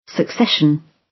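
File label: "succession", British English; Time, history; Thời gian, lịch sử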